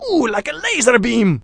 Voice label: like lazer beam, Commentators